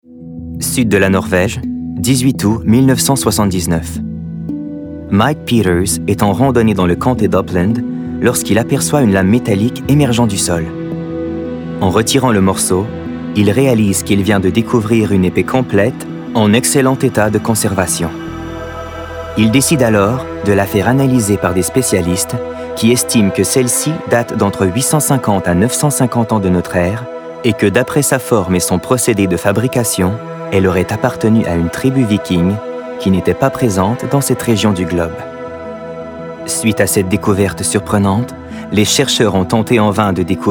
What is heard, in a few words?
narration; Norvege